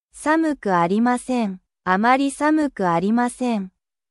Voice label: japan